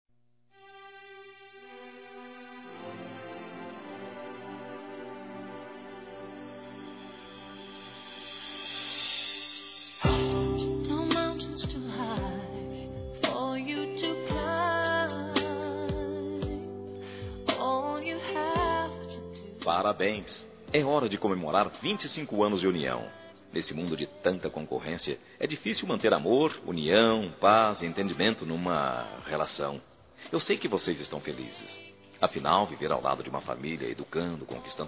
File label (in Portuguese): Boda de Ouro Feminino, Telemensagens; Bodas e Casamento